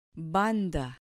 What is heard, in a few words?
"banda", Spanish